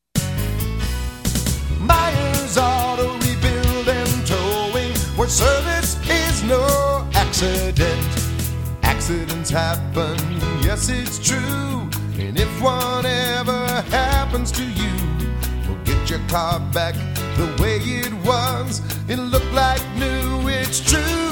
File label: towing radio jingle; myers auto rebuild